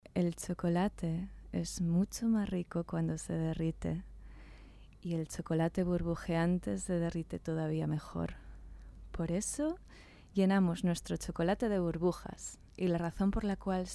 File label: SPANISH Commercial